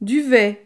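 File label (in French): "duvet", French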